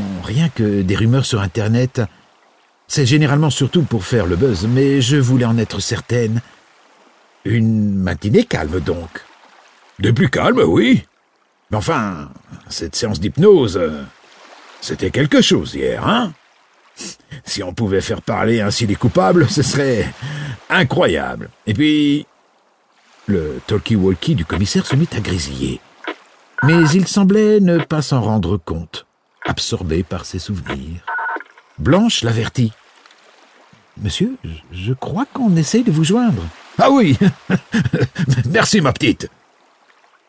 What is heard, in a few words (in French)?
Livre audio THRILLER; hoerbuch; AMBLETEUSE